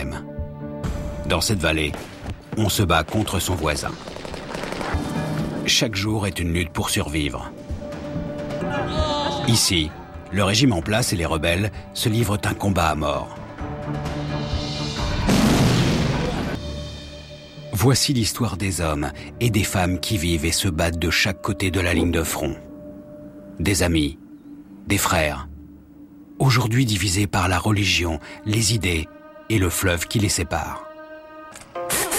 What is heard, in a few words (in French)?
voix off, La Syrie; France O